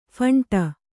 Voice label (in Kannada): "ಫಂಟ", kannada